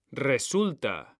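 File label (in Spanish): "RESULTA", Spanish; mekanta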